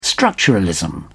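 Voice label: "structuralism", British English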